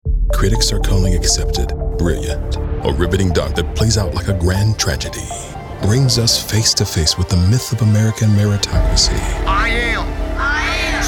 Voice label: TRAILER

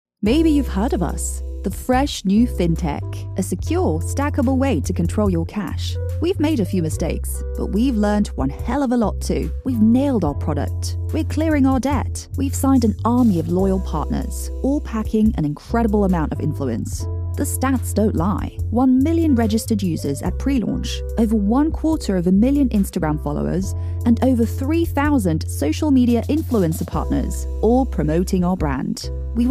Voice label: UK sassy informative casual

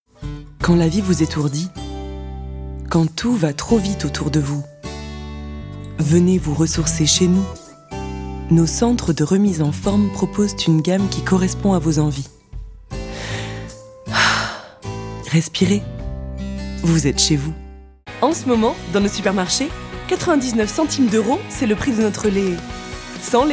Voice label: FRENCH VOICE REEL

